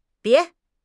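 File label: "bie2", Chinese; HSK; HSK2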